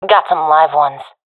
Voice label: sees enemy, professional fem; vo; agents; Source Model Packs; Counter Strike Model Pack; CS2

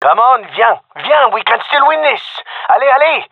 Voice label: round losing, male; vo

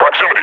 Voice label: near panic; Half Life Model Pack

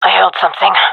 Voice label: heard sound, gendermerie fem; vo

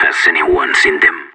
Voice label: radio bot query, separatist; Counter Strike; CS:GO

